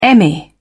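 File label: "emmy", British English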